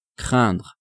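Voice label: "craindre", French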